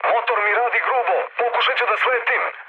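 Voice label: Serbian damaged engine mood med, speech; War Thunder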